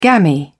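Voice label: "gammy", English, British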